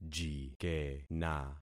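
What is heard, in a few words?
"jikena", concatenated syllables; Affixing experiment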